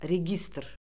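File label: "registr", Azerbaijani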